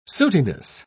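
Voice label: "sootiness", British English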